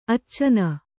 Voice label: "ಅಚ್ಚನ", kannada